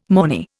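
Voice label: "mornay", Indian English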